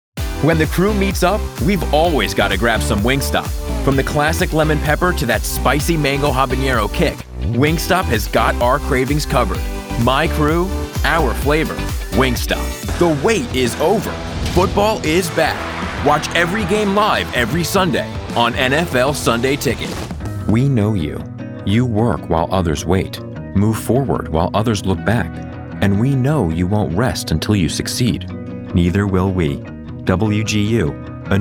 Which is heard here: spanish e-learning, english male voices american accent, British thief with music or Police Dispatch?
english male voices american accent